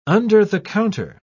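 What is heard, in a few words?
"under-the-counter", British English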